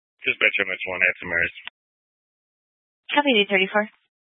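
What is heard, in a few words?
Fire Dispatch, Scanner audio recording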